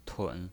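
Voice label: "tun", pinyin voice, third tone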